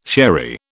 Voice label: "sherry", British